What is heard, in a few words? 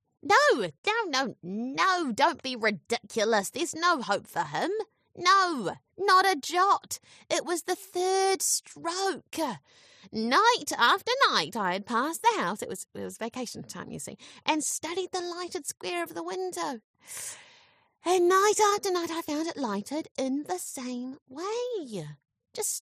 english new zealand impersonations